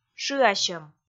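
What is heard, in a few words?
"шыасьӧм", Komi, female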